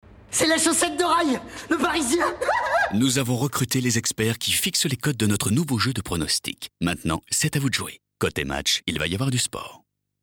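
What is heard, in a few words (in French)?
PUB, voix; Cote et Match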